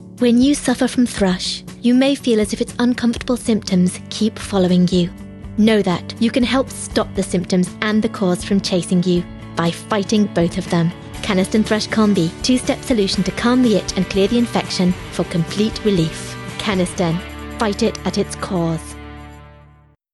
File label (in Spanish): Publicidad